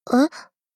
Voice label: shock